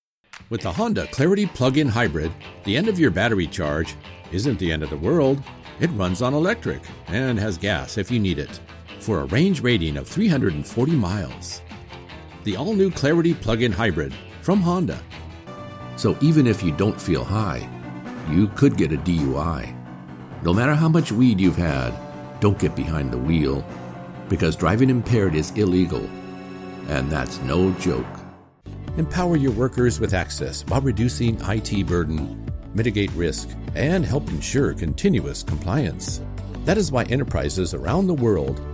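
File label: commercial mix tape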